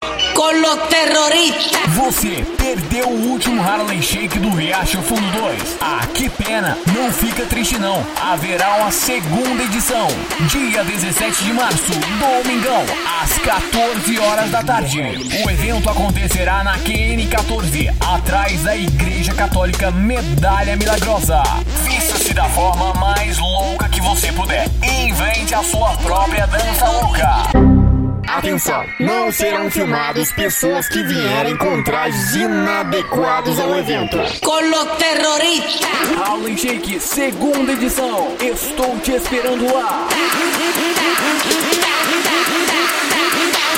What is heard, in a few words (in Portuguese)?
vinhetas chamada